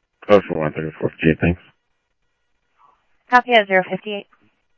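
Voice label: police radio scanner traffic, Mesa Simulcast; MESA PD Superstn; MesaPoliceDep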